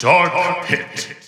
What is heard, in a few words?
English Announcer; Dark Pit; SSB4 SSBU